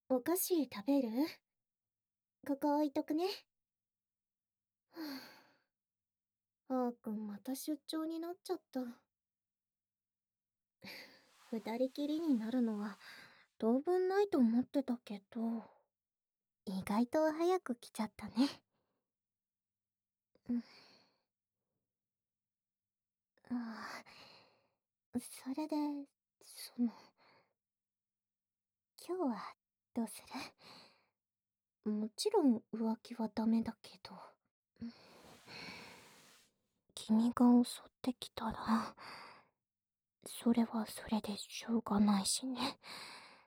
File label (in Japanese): 後日 ショートトーク, ASMR; NTR; お隣さんの新妻に気持ちいい事を教えて堕とす -「本番じゃないから浮気じゃないよね?」- 寝取り